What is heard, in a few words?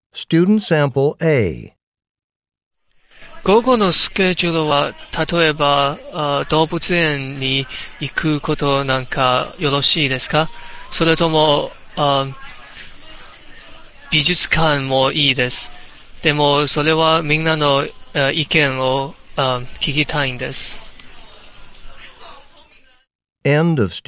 japanese return telephone call